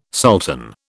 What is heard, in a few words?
"sultan", British